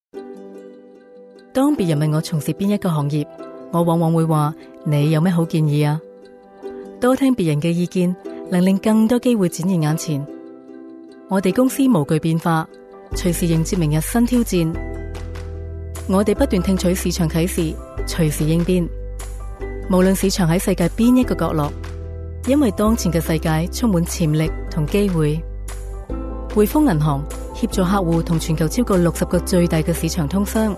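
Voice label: Chinese Cantonese, female, commercial; voice over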